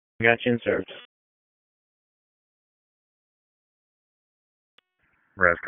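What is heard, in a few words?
EMS DISP, radio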